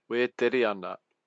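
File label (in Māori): "weteriana", Māori word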